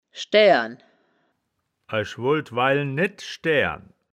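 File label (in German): "schdaeaeren", wittlicher woerterbuch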